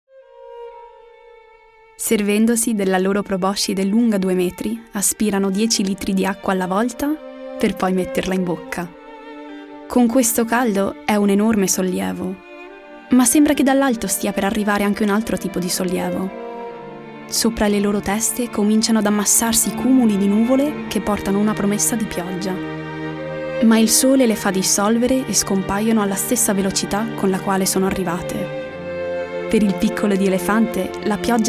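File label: Italian Documentary; GoLocalise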